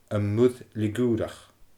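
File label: "Am Muir Liogùrach", Scottish Gaelic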